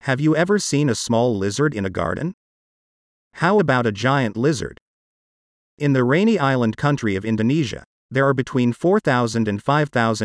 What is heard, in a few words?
usa full lesson